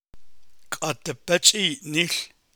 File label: navajo